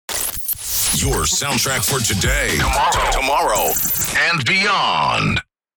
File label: Male radio voice dj sweeper your soundtrack for today; broadcasting